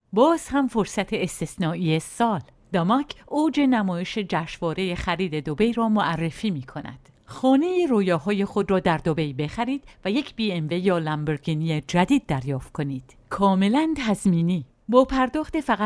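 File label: Farsi commercial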